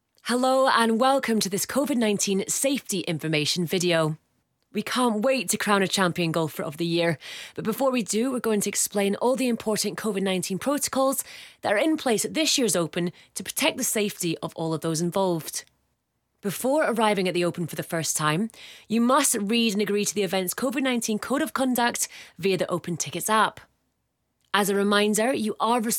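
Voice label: English Scottish, female, narration; accent of scotland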